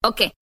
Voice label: "okay", expletives

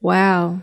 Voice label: "wow" drag; ooh ahh

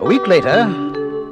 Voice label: week later, male

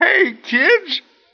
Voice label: "hey kids", voice

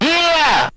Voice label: "yeah" distortion, voice

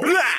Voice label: "blaow", ooh ahh

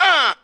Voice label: "uh" scratch; ooh ahh; uh um

